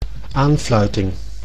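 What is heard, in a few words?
"aanfluiting", Dutch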